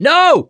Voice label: reaction negative, survivor voice mechanic